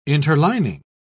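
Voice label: "interlining", English, British English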